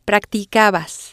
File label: "practicabas", Spanish